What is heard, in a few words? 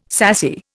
"sassy", Indian